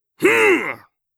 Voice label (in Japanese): "ふんっ！！", 戦闘ボイス 中年 低音